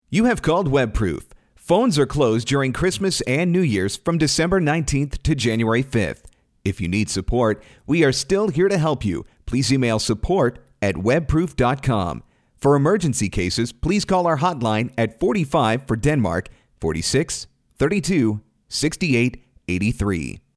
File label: Christmas Phone Greeting; WebProof